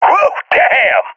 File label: cheer; Source Model Packs; Counter Strike Model Pack; CS2